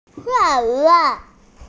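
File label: "tiao wu", Mandarin Chinese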